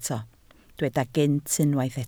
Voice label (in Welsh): "gynt", Welsh